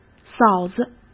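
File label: "嫂子", Mandarin Chinese; hsk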